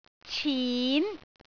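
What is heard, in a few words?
"cin2", Cantonese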